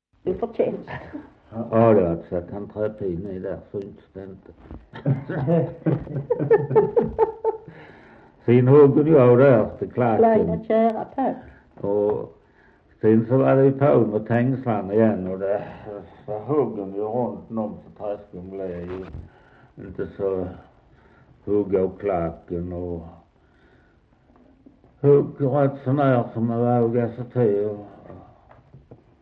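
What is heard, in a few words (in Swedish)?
Västra Torup Skåne, dialekter; Folkminnen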